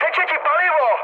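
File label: tank damaged, Czech, mood med; speech; War Thunder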